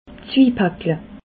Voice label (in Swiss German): "zwibackel", alsace